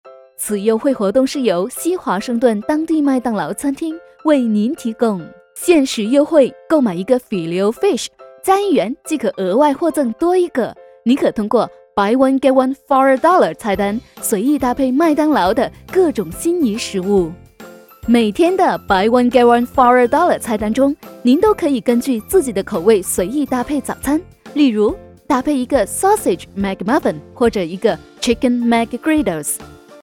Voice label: chinese mandarin promos